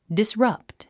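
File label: "disrupt", English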